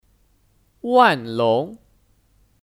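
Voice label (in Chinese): "万隆", Mandarin Chinese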